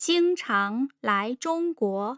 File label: jing chang lai zhong guo, tone pairs